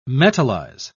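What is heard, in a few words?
"metalize", English, British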